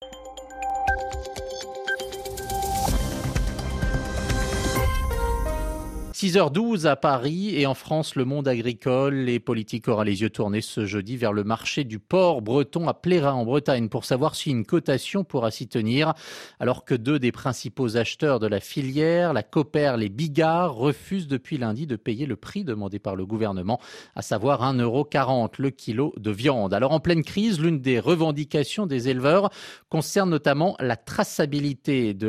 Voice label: reportage; confusion a tiquettage porc

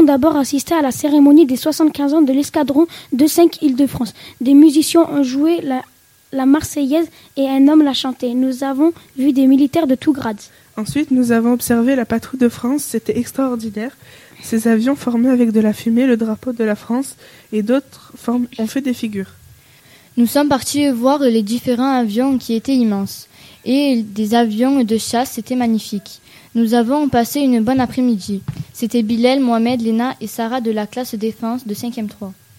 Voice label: Reportage base aerienne

